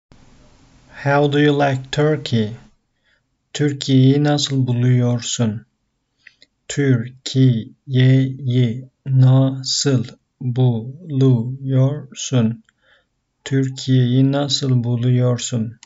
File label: how do you like, turkish; how do you like turkey; the talking turkish phrasebook